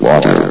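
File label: "water", male synthesized voice; vox; source engine; hl1; video games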